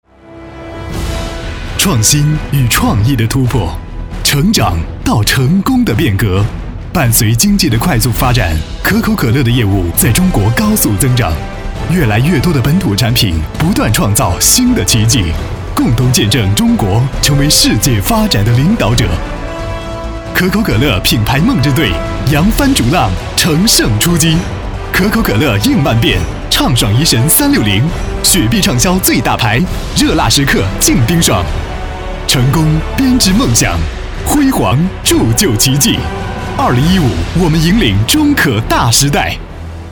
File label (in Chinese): 激情; 产品; 可口可乐